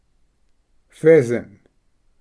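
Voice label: "pheasant", English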